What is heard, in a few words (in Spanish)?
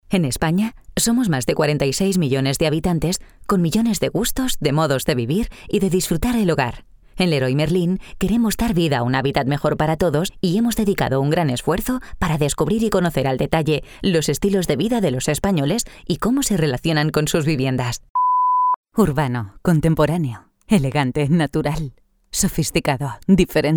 narración